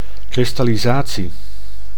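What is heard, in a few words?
"kristallisatie", Dutch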